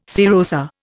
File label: "serosa", Indian